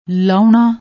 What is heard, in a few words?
"laouna", Punjabi